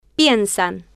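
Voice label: "pien-san", Spanish, female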